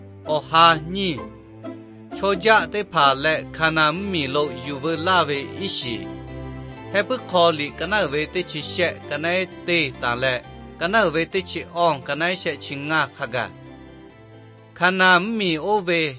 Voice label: victory through god lahu na, nt drama